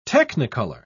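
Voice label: "technicolour", British English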